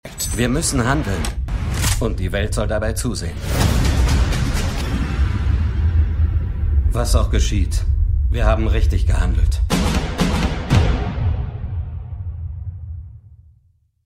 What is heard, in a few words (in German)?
Trailer; ENTEBBE